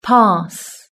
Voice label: "pass", British English

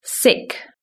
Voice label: "sick", British English

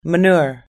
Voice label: "manure", US English

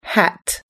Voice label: "hat", US English

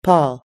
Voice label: "paul", US English

